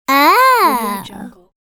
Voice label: preschool girl saying cute "oh"; اوه گفتن بامزه دختربچه; human sounds